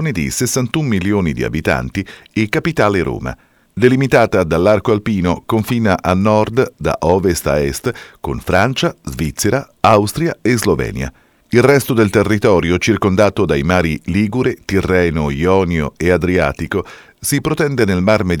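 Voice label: doblaje, Italian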